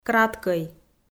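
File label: "краткӧй", Komi, female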